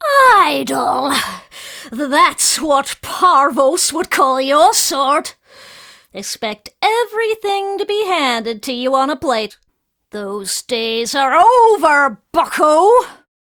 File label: Remind Taunt; Warframe; Corpus Lich; Persona D